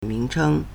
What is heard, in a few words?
"ming2cheng1", Chinese